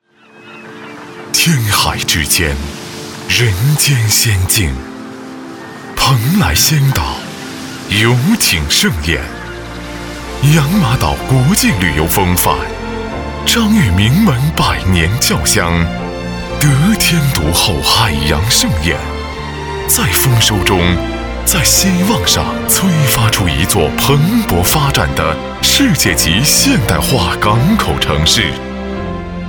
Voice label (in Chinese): 宣传片 地产 大气房地产, 男国